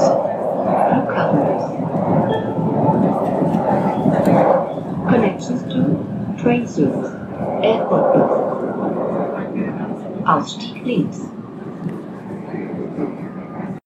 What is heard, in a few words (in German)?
Durchsage in U-Bahn